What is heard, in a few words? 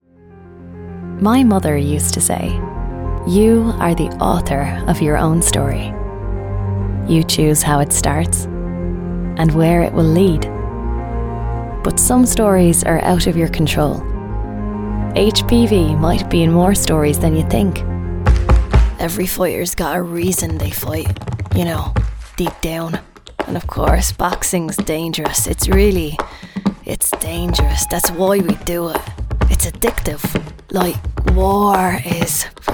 female English and Irish multiple Irish accents commercial; irish southern